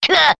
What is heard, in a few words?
"kah", voice; ooh ahh